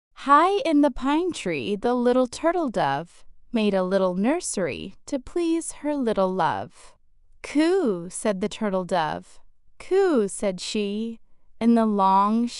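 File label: female US, classic rhymes; High in the Pine Tree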